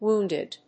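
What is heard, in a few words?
"wounded", English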